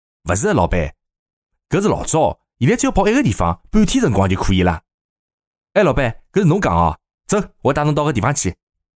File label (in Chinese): 男 角色员工 上海话